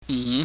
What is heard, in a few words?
"ng", pingyam